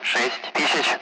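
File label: Speech, Russian, Numbers, 60; Allied Flight; DCS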